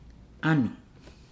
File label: "dance", Nukuoro